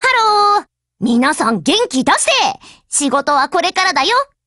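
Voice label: HELLO, Japanese; GF Alarm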